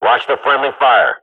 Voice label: radio bot under fire friendly, swat; Counter Strike Model Pack; CS:GO

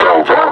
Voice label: "delta", suppressor; Half Life Alyx; combine

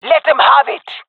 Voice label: attacking, fem; player vo; Counter Strike Model Pack; CS:GO

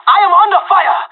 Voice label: radio taking fire; Counter Strike Model Pack; CS:GO

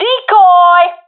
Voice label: throwing decoy, professional fem; vo; Counter Strike Model Pack; CS:GO

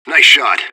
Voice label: radio bot nice shot; fbihrt

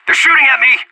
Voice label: radio taking fire, anarchist

